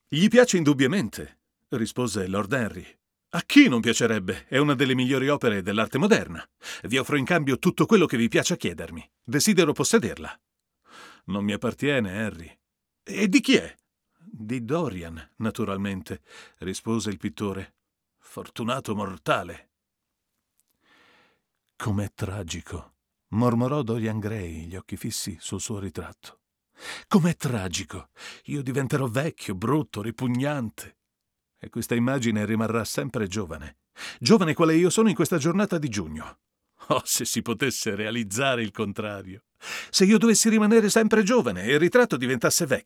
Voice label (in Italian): U87 per confronto iRig Mic